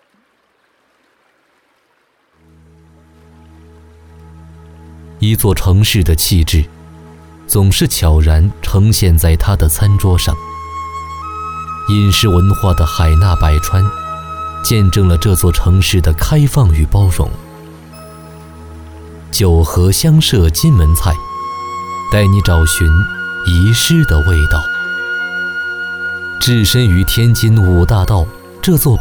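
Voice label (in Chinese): 国语 男声 走心旁白-宣传片 素人; 天津九河香舍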